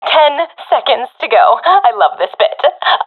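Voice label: ten seconds remaining, professional female; vo; agents; Source Model Packs; Counter Strike Model Pack; CS2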